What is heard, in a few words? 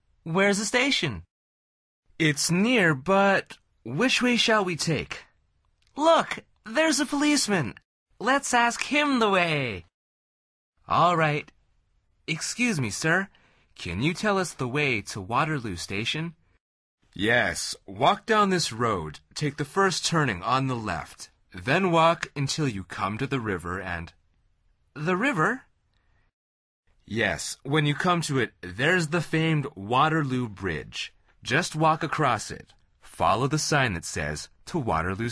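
dialog, Conversación en Ingles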